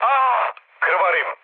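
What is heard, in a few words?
Serbian damaged pilot mood low, speech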